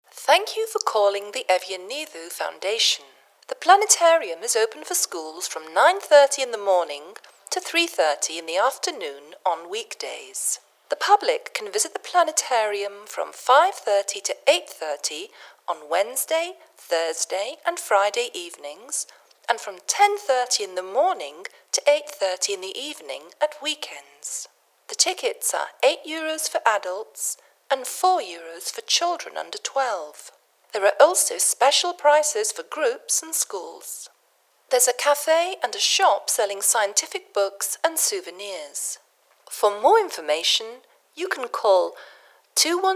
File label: A recorded message; Eugenidou Foundation